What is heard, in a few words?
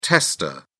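"testa", English, British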